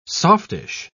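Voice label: "softish", British English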